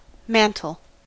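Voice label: "mantle", US English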